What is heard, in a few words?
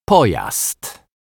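"pojazd", Polish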